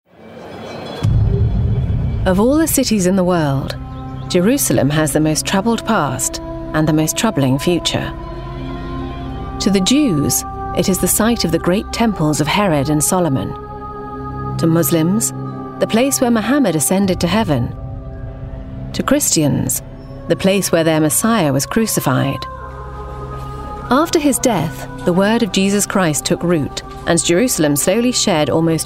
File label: Documentary